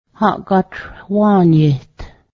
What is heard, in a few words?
i send the news, Cayuga